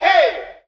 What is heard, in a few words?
"hey", Voice